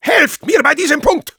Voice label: helpmecapture, de

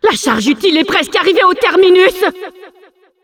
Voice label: announcer cart attacker final warning, fr

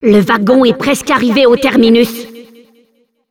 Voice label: Announcer cart defender final warning, fr

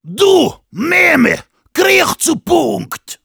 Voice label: stand on the point, de; Heavy

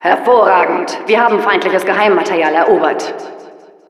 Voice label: Intel team captured, de

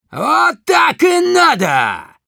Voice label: positive vocalization, ru; Demoman

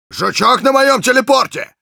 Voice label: auto attacked by spy, ru

